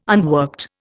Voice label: "unworked", Indian